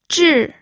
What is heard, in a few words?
"zhi4", pinyin